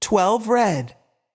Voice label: voice Over, roulette; europeanroulette3